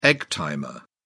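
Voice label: "egg timer", British English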